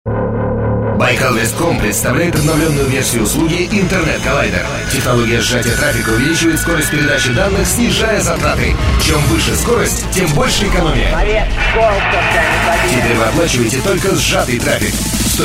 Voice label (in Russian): Озвучка к видео; Kollaider 2 Irkutsk